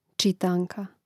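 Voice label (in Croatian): "čitanka" Croatian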